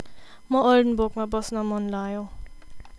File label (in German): Ich mag mein Leben in oldenburg, Nepalesisch; sprachkurs; Ich wohne gern in Oldenburg!